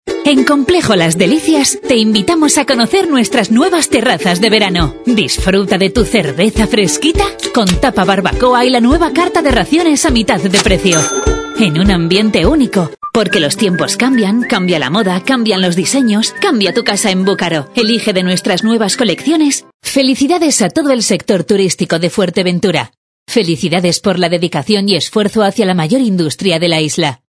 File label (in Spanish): MUJER